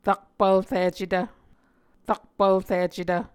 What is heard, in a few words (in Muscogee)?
"rakpvlricetv", muscogee